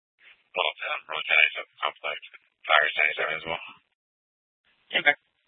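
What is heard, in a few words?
Police Department North Dispatch, scanner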